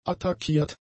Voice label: "attackiert", German; adjectives